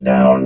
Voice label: "down", robot vox